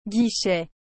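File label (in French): "guichet", French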